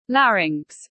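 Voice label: "larynx", English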